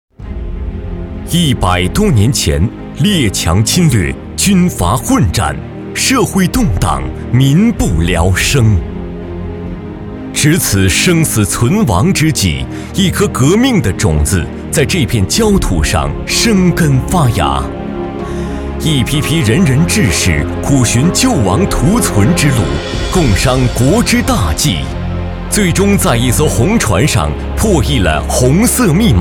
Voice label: 红色 硬气 专题

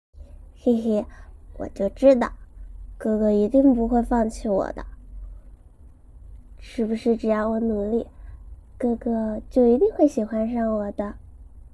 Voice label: 女幼童

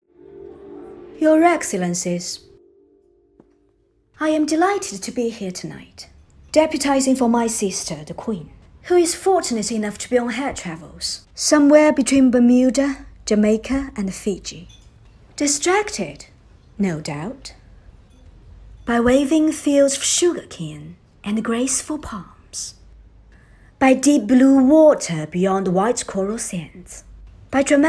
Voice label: 英式优雅高冷风公主致辞

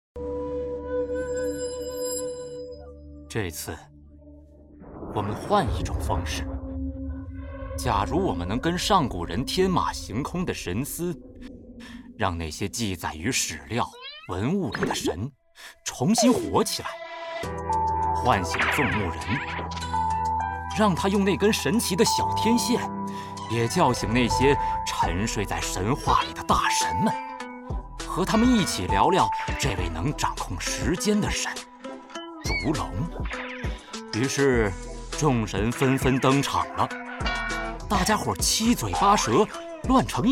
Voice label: 讲述感 纪录片

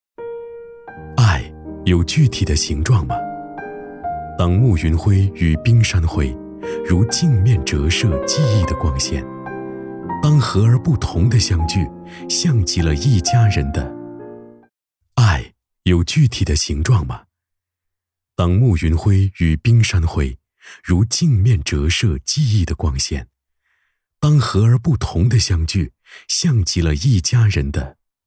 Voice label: 配音沉稳温暖旁白

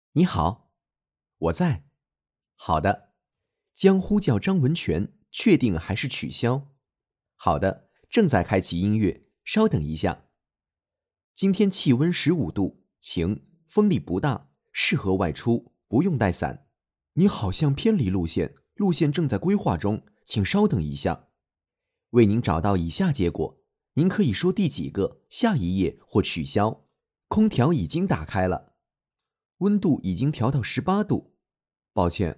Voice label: 车载导航 试音

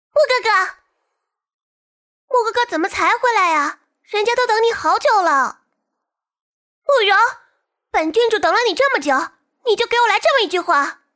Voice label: 女配郡主少女音

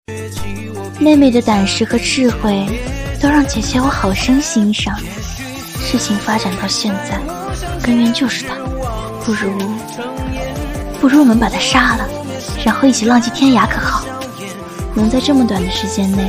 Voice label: 影视配音

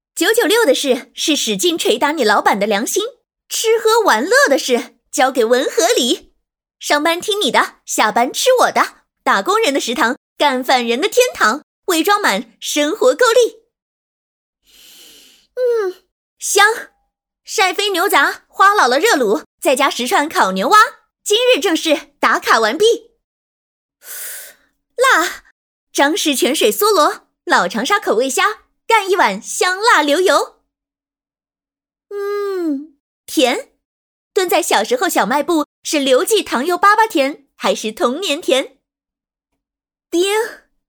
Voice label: 女 短视频商场广告含方言; 晶宝

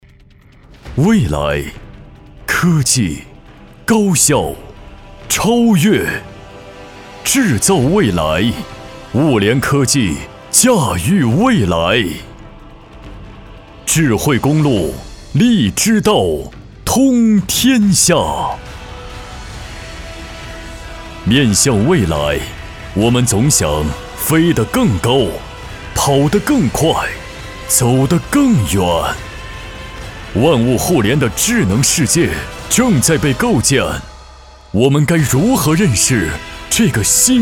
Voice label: 大气磅礴; 万物互联新世界

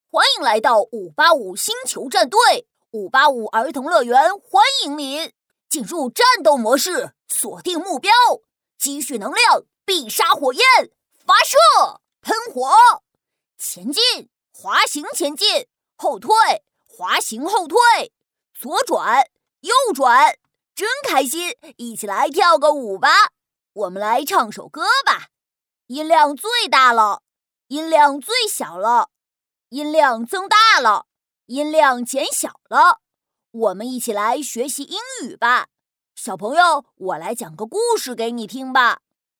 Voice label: 男童游戏机

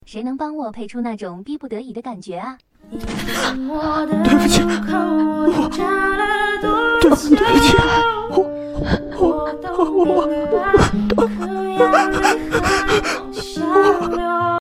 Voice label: 配音

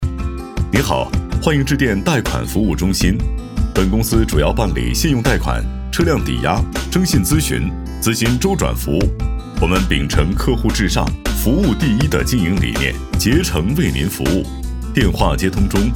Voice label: 彩铃年轻浑厚

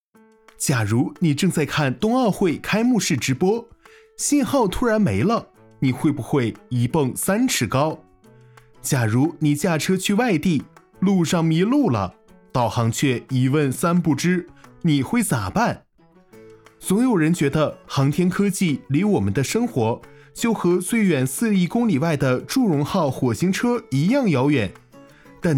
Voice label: 轻松; 谁说航天科技和你没关系

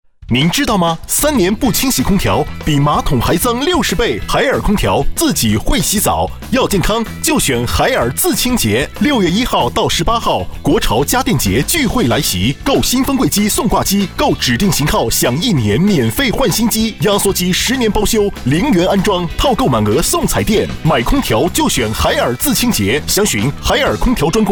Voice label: 欢快促销; 海尔专柜

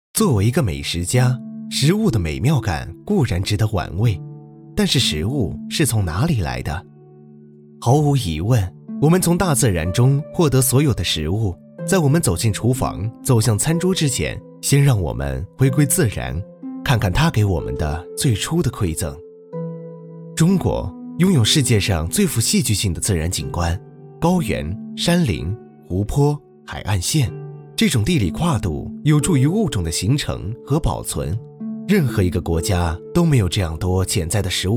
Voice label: 纪录片

